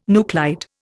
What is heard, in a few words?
"nuclide", Indian